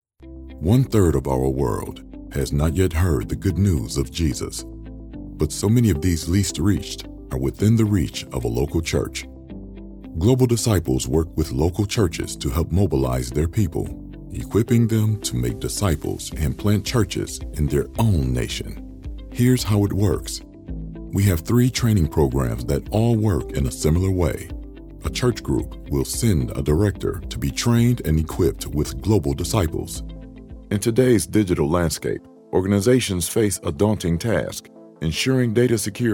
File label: english american e-learning